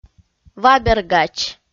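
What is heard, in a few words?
"вабергач", Komi-Zyrian, female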